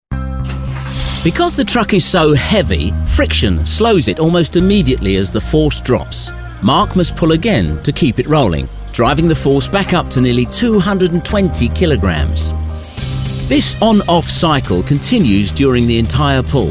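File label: narration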